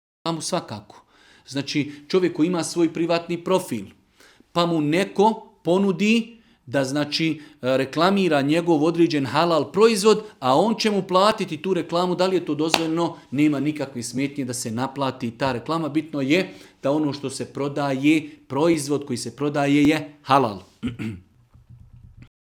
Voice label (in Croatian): reklama